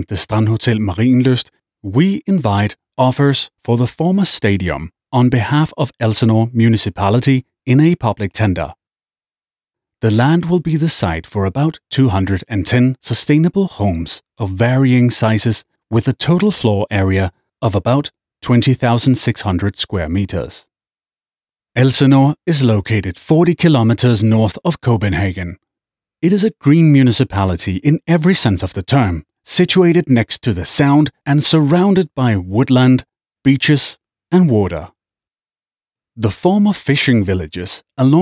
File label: voice US UK